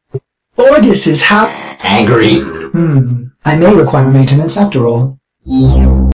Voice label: Angry; Ordis